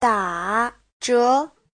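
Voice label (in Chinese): "da3 zhe2" Chinese; 打折 : chiết khấu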